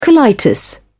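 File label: "colitis", British English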